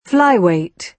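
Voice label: "flyweight", British English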